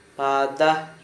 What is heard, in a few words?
field, Gta, Dialect: Hill; household